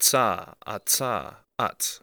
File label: voiceless Alveolar Affricate